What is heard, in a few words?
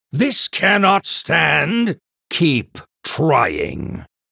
Male Human Behind